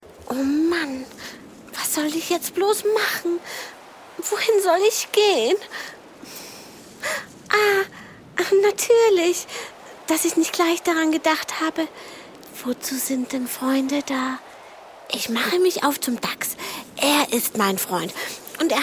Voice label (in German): Hoerspielszene